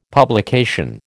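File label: "publication", American English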